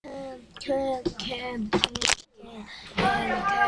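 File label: "can", spoken word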